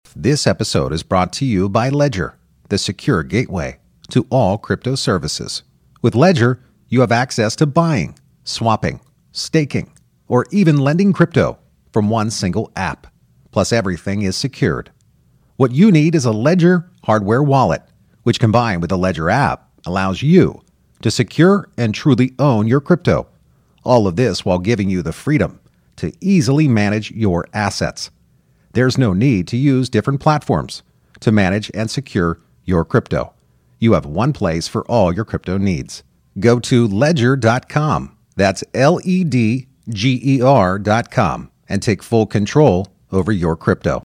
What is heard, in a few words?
narrator; Ledger